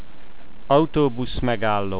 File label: bus station, hungarian